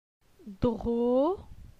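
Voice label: "Dro", French; phonetique; la syllabe o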